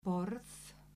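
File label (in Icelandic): "borð", Icelandic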